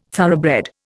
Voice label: "thoroughbred", Indian

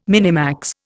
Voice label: "minimax", Indian

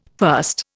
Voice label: "first", Indian

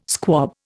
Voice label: "squab", Indian

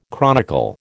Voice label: "chronicle", American

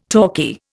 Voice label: "talkie", Indian

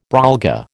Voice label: "brolga", American

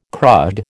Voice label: "crud", American

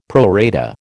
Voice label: "pro rata", American

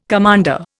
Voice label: "commander", Indian

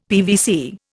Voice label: "PVC", Indian; Dictionary

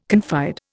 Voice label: "confide", Indian

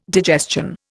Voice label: "digestion", Indian

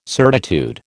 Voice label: "certitude", American

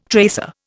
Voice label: "tracer", Indian

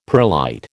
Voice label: "perlite", American

